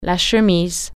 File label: folder, french